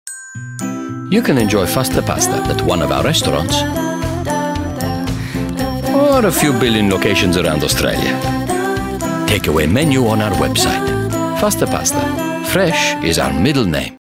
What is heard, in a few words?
Italian accent; FASTA PASTA